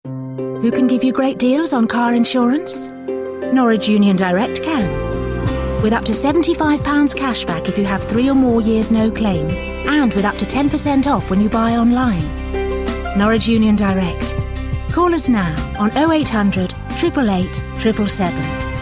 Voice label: commercial